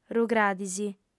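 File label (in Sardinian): "rugradis", female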